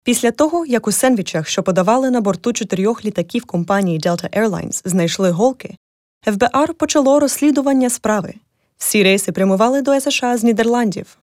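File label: Ukrainian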